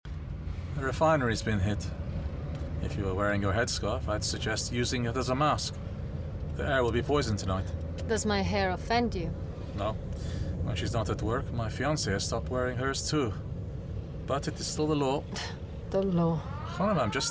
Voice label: Iranian accent Radio drama; BBC; Nothing Is Normal